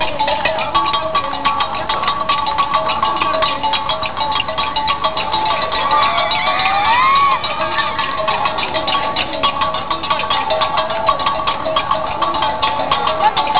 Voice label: Kusaal Students; Navrongo